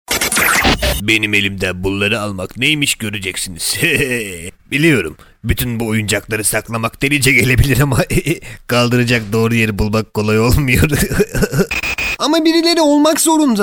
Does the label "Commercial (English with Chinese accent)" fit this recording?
No